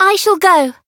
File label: Voice variable chant